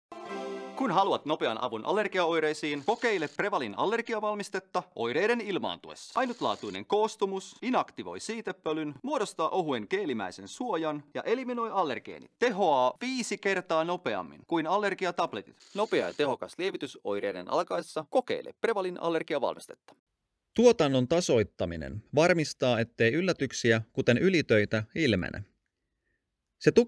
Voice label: Finnish Commercial